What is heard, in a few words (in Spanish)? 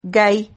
"gai", Silabas